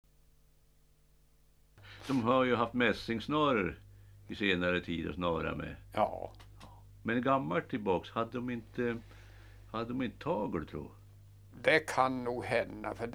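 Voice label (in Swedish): Bjuråker Hälsingland, dialekter; Svenska sagor; Folkminnen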